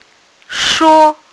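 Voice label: "shuo1", Mandarin Chinese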